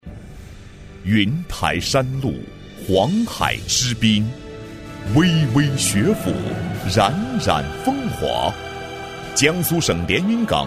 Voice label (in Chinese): 男 学校宣传片 大气; 中医药高等职业学校